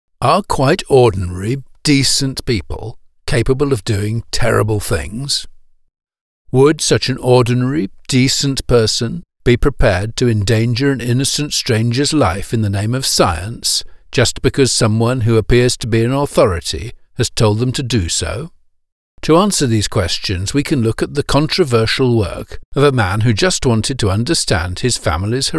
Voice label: eLearning The Milgram Experiment